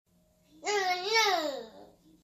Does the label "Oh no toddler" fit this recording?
Yes